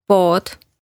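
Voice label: "Pood", Estonian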